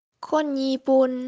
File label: japanese